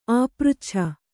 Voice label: "ಆಪೃಚ್ಛನ", kannada

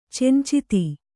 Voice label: "ಚಂಚಿತಿ", Kannada

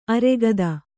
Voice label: "ಆರಾಘಾತ", Kannada

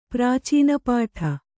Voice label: "ಪ್ರಾಚೀನಪಾಠ", Kannada

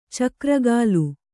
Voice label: "ಚಕ್ರಗಾಲು", Kannada; cakra gālu; noun

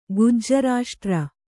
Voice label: "ಗುಜ್ಜರಾಷ್ಟ್ರ", Kannada

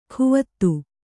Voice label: "ಕುವತ್ತು", kannada

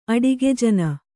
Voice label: "ಅಡಿಗೆಜನ", Kannada

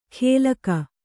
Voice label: "ಗೆಲ್ಕ", Kannada

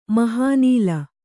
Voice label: "ಮಹಾನಿಲ", Kannada

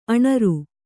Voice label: "ಅನೂರು", Kannada

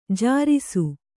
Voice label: "ಜೇರೈಸು", Kannada

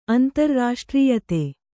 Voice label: "ಆಂಧ್ರ", Kannada

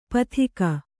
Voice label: "ಪತ್ತಿಗ", Kannada

